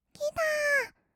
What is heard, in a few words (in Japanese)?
きたー！, kawaii voice; ASMR; 色々なワンショット